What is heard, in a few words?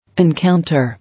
"Encounter", English